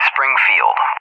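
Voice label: "Springfield", English, USA; CallSign; DCS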